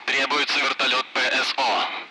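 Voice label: Speech Sound Russian Common Allied Flight; Request CSAR; DCS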